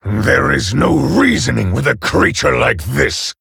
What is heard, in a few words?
Male Orc Ogre